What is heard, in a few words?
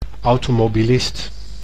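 "automobilist", Dutch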